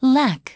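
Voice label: "lack", English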